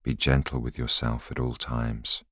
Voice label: voiceover